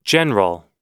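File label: "general", American English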